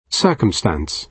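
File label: "circumstance", British English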